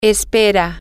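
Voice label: she hopes, Spanish, female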